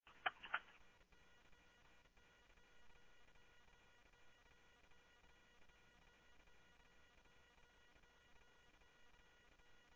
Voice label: Tempe Police, scanner audio